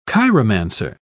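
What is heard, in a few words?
"chiromancer", English, British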